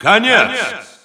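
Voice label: narration game set Russian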